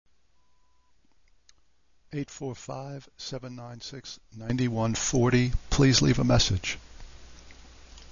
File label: Voice Mail; Skylook